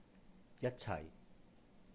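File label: "yāt-chàih", Cantonese; together; 一齊; jat1cai4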